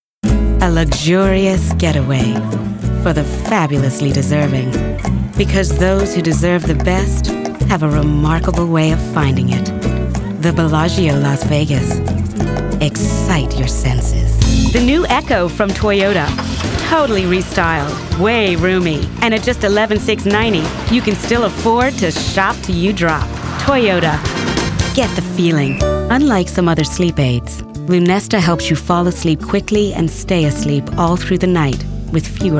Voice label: Commercial